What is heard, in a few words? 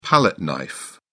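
"palette knife", British English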